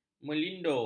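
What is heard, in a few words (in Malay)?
"melindo", Malay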